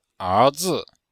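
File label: "érzi", Chinese; Cours de Chinois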